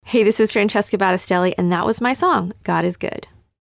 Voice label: song backsell